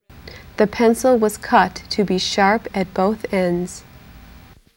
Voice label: female